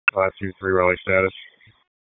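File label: Raleigh Police Southeast District Dispatch; wake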